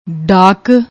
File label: "daak", Punjabi